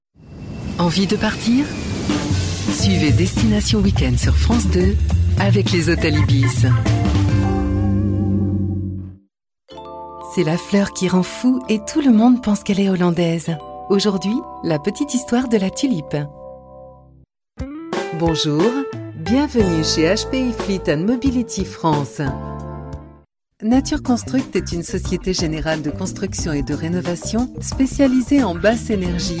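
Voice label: female French commercial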